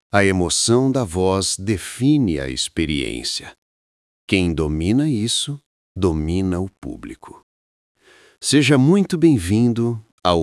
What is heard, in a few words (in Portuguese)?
Narração Melancólica